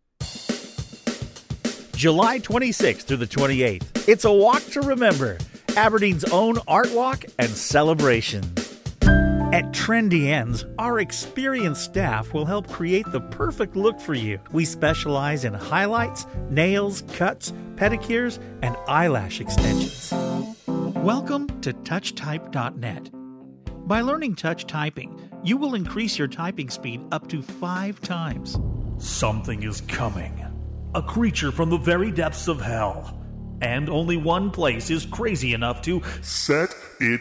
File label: commercial